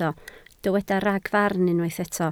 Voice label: "ragfarn", Welsh